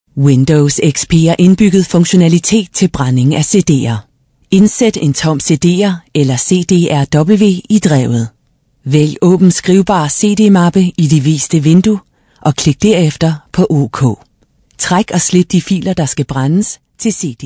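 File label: Danish F Corporate, female; voice over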